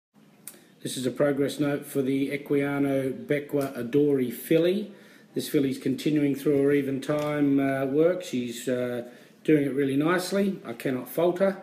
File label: voice note